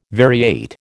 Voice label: "variate", American